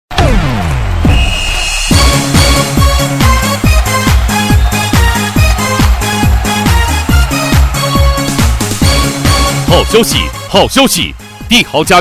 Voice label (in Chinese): 男 促销 家居向前冲